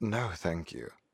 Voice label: Emote Negative